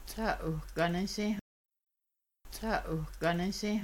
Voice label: he she might dry dishes, Kaska; Washing Dishes